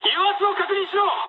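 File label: damaged oil mood med, speech japanese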